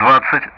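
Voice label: Speech Sound Russian; DCS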